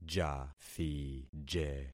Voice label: "jathije", concat syllables; Affixing experiment

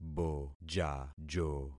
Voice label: "bojajo", concat syllables; Affixing experiment

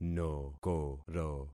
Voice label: "nokoro", concat syllables; Affixing experiment

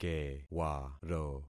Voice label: "kewaro", concat syllables; Affixing experiment

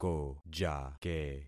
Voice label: "kojake", concat syllables; Affixing experiment

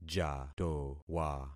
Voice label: "jatowa", concat syllables; Affixing experiment

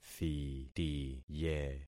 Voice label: "thitiye", concat syllables; Affixing experiment

